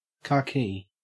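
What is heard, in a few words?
"khaki", Australian English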